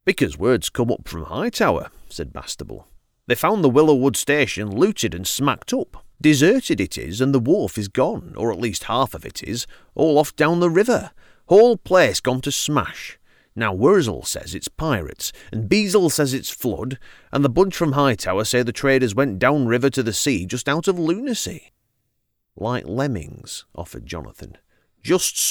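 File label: northern, accents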